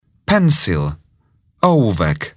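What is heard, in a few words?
"pencil", English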